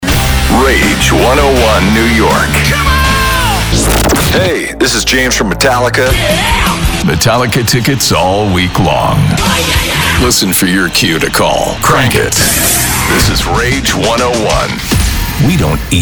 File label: ROCK, Voiceover